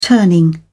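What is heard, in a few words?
"turning", British English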